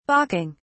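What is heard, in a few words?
"balking", British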